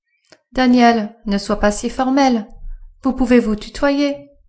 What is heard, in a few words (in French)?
daniel ne sois pas si formel vous pouvez vous tutoyer, female